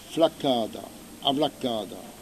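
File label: "flaccata", Corsican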